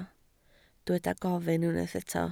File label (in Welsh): "gofyn", Welsh